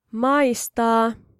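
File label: Finnish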